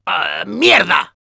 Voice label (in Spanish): Demoman negative vocalization, es; ¡Aw, mierda!